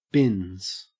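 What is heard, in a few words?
"bins", Australian English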